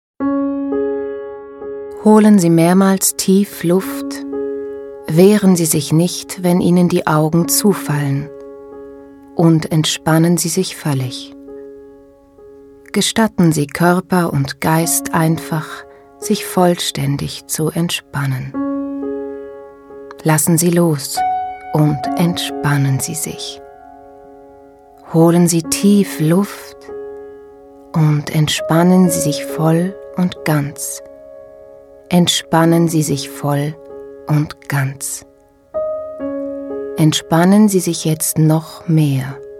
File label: meditation